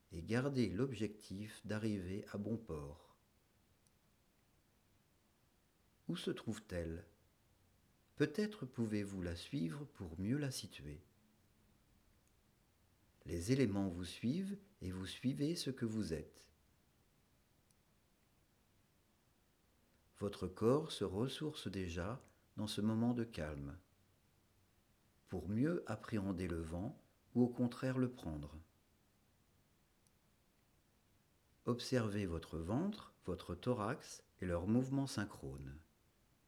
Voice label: Mer Animal Respiration Homme Sans Musique

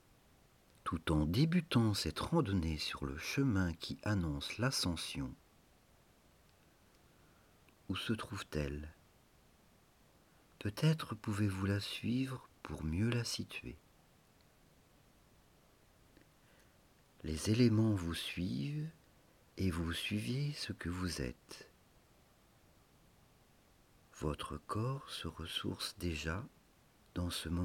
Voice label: Montagne Animal Respiration Homme Sans Musique

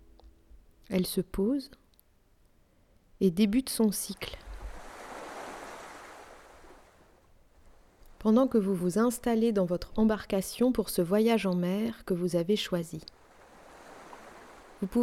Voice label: Mer Animal Respiration Femme Musique

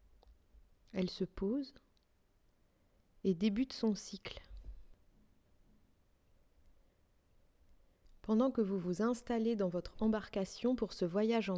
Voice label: Mer Animal Respiration Femme Sans musique

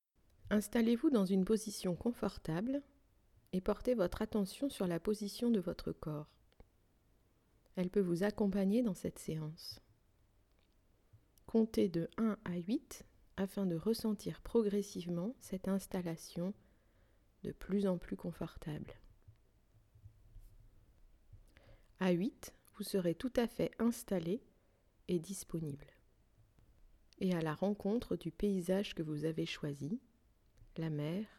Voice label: Mer Animal Compter Femme Sans Musique

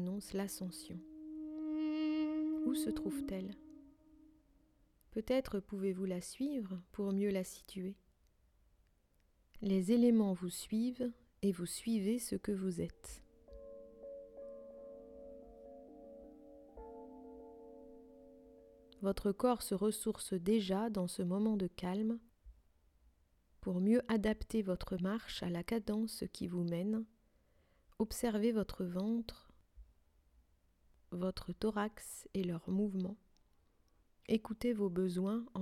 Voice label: Montagne Animal Respiration Femme Musique

